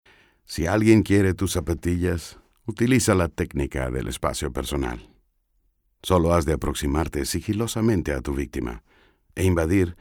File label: male latam venezuelan comercial; ideasonora